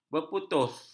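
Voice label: "berputus", Malay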